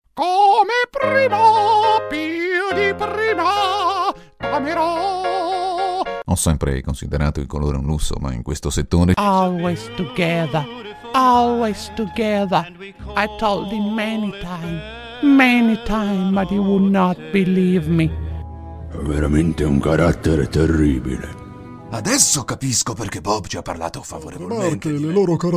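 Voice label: Italian characters; GoLocalise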